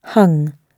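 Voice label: "hung", British English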